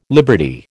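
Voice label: "liberty", American English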